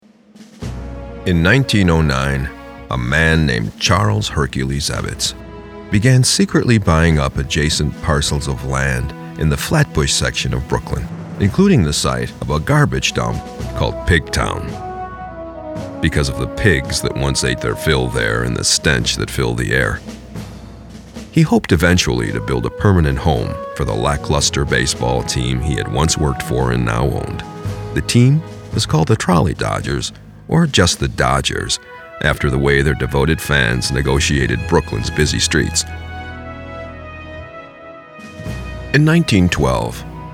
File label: Narration Documentary